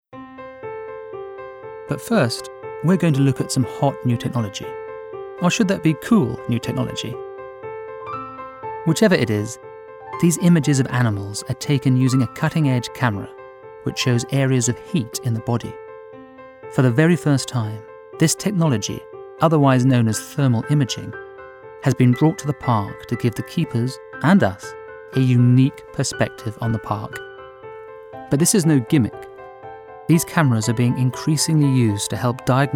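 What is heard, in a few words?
Docu - light friendly read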